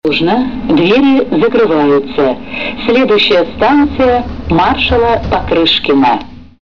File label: female